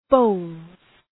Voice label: "bowls", English; {bəʋlz}; lojë me birila